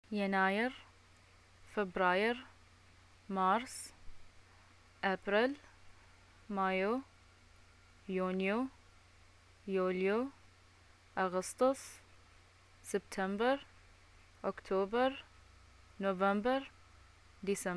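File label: months, Bahraini arabic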